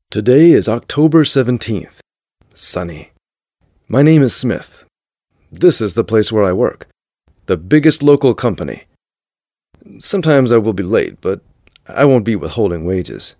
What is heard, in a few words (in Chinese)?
外籍男英 外语 美式英语 电影旁白; 电影旁白一封信